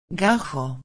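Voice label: "gajo", Spanish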